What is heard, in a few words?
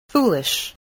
"foolish", English voice, spelling